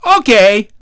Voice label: dj buoy, DJ VOICE; Nintendo; July 2020 Gigaleak

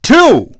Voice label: dj, voice; Nintendo; July 2020 Gigaleak; VSLAP VOICE

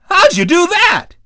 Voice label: dj great; DJ VOICE; Nintendo; July 2020 Gigaleak

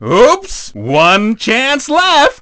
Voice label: dj miss, DJ VOICE; Nintendo; July 2020 Gigaleak

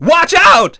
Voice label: dj crash heavy; DJ VOICE; Nintendo; July 2020 Gigaleak

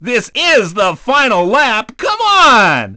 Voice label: dj lap final; DJ VOICE; Nintendo; July 2020 Gigaleak

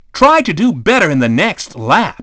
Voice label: dj time lap; DJ VOICE; Nintendo; July 2020 Gigaleak

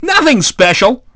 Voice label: dj time lap; DJ VOICE; Nintendo; July 2020 Gigaleak

